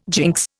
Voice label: "jinx", Indian

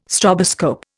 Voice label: "stroboscope", Indian

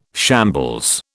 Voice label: "shambles", British

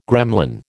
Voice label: "gremlin", American

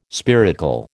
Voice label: "spiracle", American

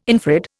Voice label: "infrared", Indian

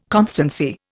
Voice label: "constancy", Indian

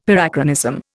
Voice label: "Parachronism", Indian; Dictionary

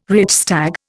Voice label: "Reichstag", Indian

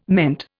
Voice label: "meant", Indian

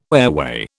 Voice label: "airway", British

